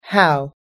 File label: "how", us english